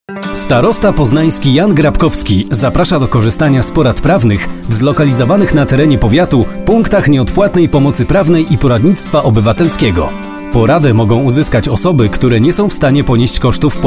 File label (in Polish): spot radiowy